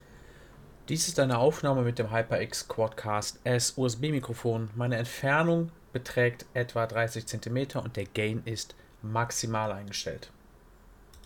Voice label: HyperX Quadcast S Gain MAX, usb mikrofon